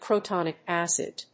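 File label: "Crotonic Acid", English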